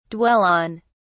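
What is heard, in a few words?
"dwell on", British English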